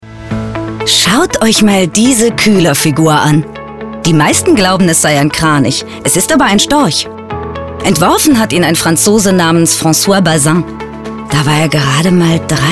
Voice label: Audioguide Museum; FULL MIX; LOFT VOICES